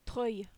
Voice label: "trouille", French

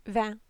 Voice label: "vin", French

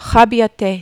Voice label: "rabioter", French

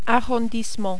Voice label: "arrondissement", French

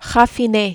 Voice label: "raffine", French

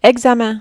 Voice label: "examen", French

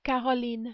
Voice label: "Caroline", French

